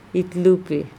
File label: "itlupe", Andamanese